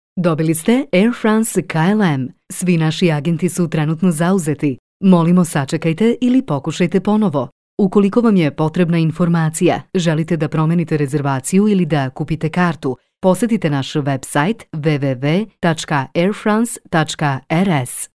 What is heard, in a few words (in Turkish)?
Sirpca Kadin, sirpca seslendirme; kadin sesler